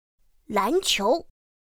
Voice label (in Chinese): "lánqiú", pinyin; pinyin2; pinyinji